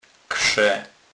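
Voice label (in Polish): "krze", Kurpie dialect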